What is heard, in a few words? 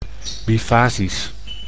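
"bifasisch", Dutch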